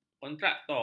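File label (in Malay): "kontraktor", Malay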